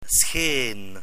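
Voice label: "scheen", Dutch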